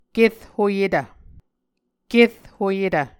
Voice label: "kerhoyetv", Muscogee